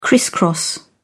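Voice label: "criss cross", British English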